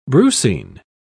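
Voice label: "brucine", British English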